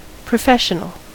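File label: "professional", American English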